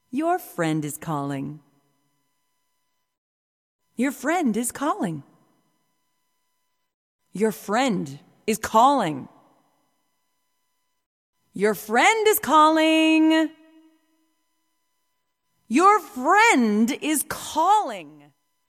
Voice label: female talking ringtones